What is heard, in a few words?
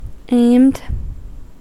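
"aimed", US English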